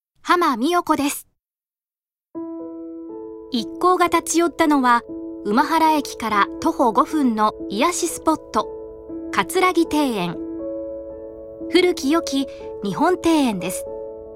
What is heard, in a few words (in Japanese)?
ノーマル